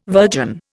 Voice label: "virgin", Indian